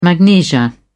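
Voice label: "magnesia", British English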